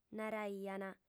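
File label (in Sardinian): "naraiant", Sardinian, female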